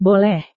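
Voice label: "boleh", Indonesian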